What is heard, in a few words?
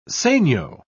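"segno", British English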